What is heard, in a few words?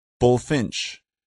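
"bullfinch", English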